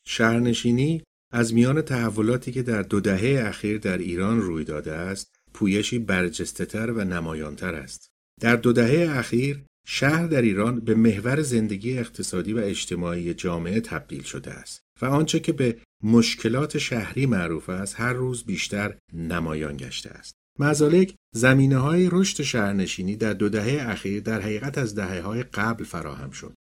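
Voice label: Farsi General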